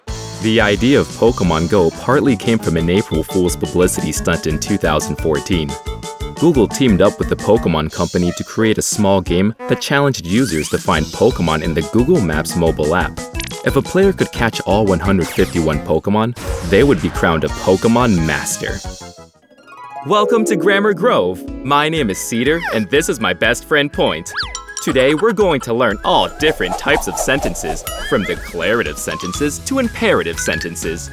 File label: Narration, voiceover